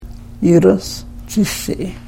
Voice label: "yuraschishiih", karuk

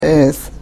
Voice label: "eeth", Karuk

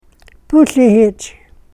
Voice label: "pusihich", karuk

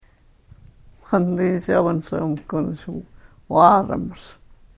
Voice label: karuk

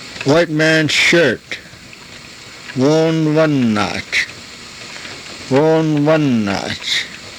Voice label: "voonvanaach", karuk

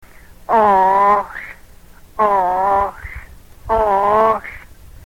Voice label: oohl, yurok

